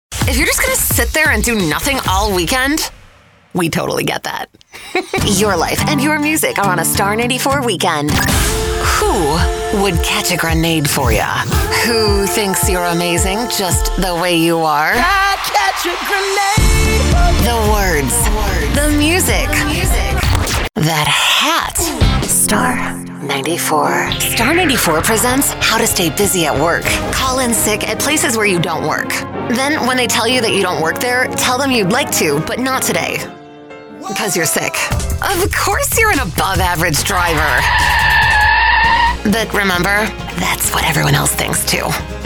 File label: radio hot ac